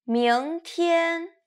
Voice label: "mingtian", Chinese

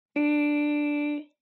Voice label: "v", Chinese

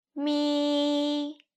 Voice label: "mi", Chinese

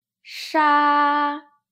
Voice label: "sha", Chinese

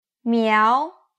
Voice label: "miao", Chinese

